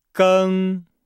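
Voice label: "geng", Chinese; Nihao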